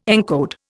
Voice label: "encode", Indian